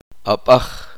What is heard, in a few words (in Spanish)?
uspanteko piedra